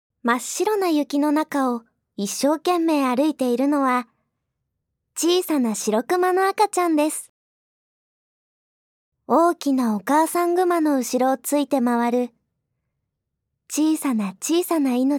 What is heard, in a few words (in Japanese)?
ナレーション; 女性